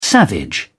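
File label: "savage", English, British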